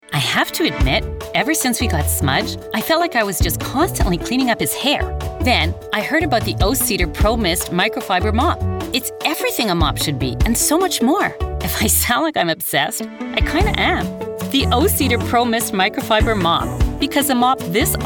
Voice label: commercial